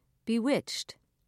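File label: "bewitched", British English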